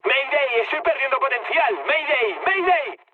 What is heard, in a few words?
damaged engine, mood med, speech; War Thunder